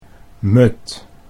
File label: "mud", Dutch